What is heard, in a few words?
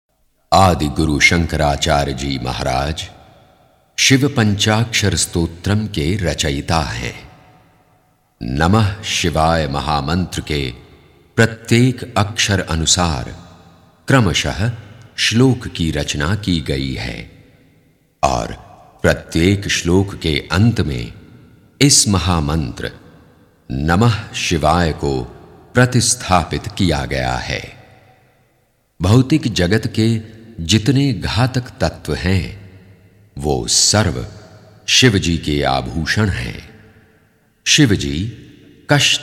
commentary shivapanchakshara stotram